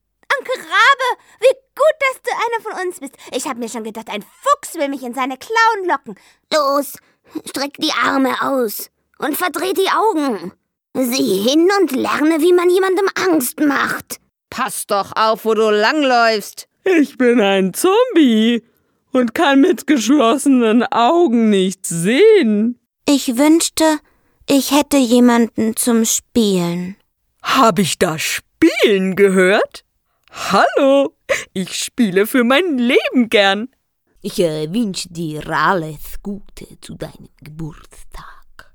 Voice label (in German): trickstimmen